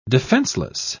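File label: "defencelessly", British English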